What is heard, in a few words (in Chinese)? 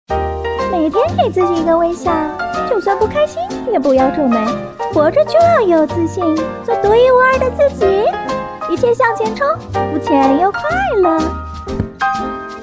卡通